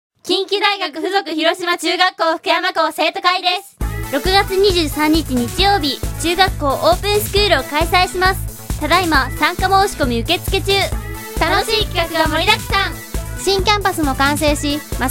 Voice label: Radio CM